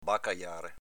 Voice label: "bacajare", Veneto

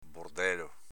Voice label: "bordelo", Veneto

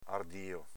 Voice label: "ardio", Veneto

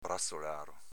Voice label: "brazolaro", Veneto

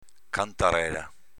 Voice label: "cantarela", Veneto; s.f. cicala

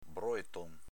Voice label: "broeton", Veneto

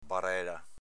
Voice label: "barela", Veneto

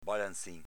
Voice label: "balanzin", Veneto

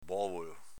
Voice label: "bovolo", Veneto

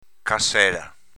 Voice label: cassela, Veneto; s.f. cassetta

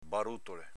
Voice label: "barutole", Veneto

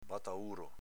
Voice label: "batauro", Veneto

